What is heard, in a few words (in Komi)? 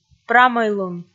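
"прамӧйлун" Komi, female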